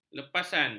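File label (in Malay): "lepasan", Malay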